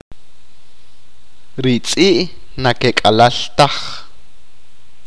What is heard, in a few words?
the dogs are not running, achi